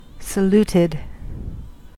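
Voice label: "saluted", American English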